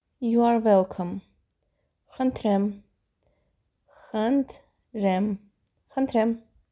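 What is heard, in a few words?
English "You are welcome", Armenian "kndrem", when responding to Спасибо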